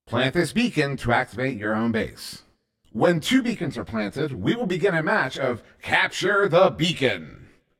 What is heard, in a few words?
Plant This Beacon, Announcer